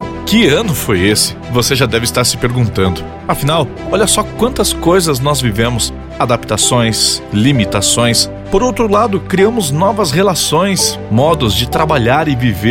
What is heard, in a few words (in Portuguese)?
spot radio campanha ano novo; web flash